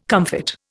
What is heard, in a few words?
"comfit", Indian